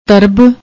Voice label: "tarab", Punjabi; ਤਰਬ

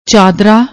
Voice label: "chaadra", Punjabi; ਚਾਦਰਾ

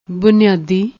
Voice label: "buneyaadi", Punjabi

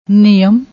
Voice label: "niyam", Punjabi

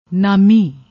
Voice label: "naami", Punjabi

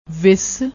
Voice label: "wis", Punjabi

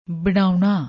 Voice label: "banaounaa", Punjabi

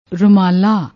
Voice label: "rumala", Punjabi

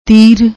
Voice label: "teer", Punjabi

